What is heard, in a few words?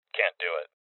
Voices Refuse Male; rebel cops 2019 gamerip